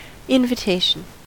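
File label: "invitation", American English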